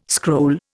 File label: "scroll", Indian